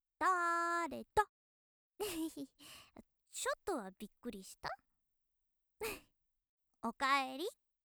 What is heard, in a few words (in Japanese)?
元気JK おかえり